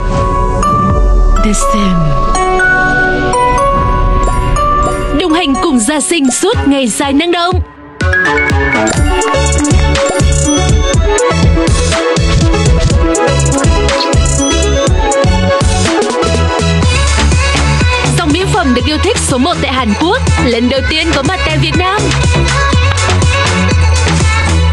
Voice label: North Female, North Vietnamese; The Saem